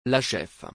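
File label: "La chef", French; سرآشپز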